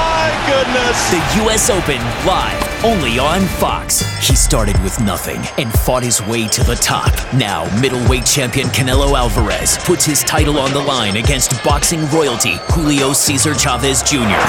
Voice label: SPORTS PROMO